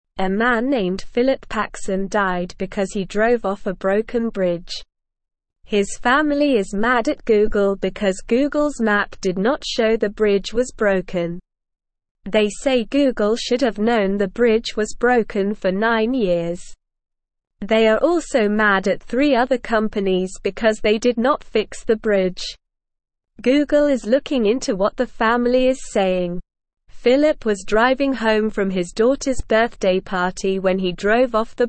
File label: English Beginner SLOW Reading; Family Mad at Google for Not Showing Broken Bridge